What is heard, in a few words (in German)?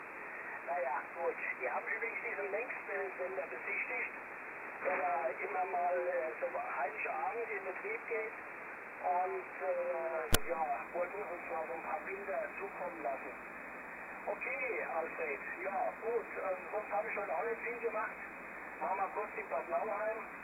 Amateurfunk USB; 18160,2khz